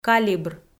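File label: "калибр", Komi, female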